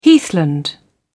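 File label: "heathland", British English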